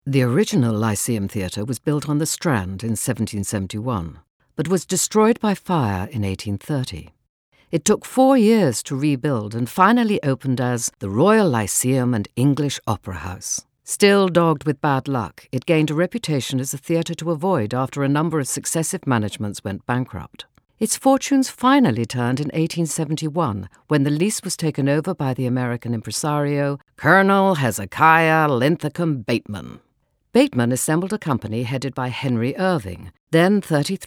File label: Narrative; Lyceum